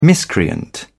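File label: "miscreant", British English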